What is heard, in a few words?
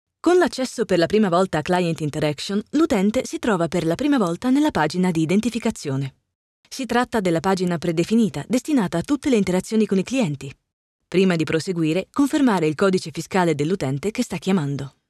Corporate